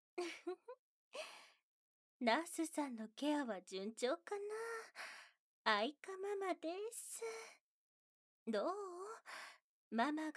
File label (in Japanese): ママの耳かき（右耳用）; 愛華ママ; 左右反転